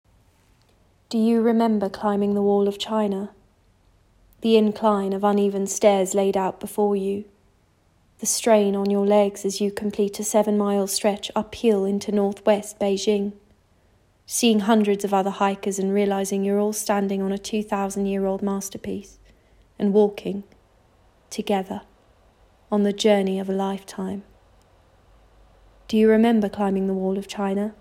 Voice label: Soft Sell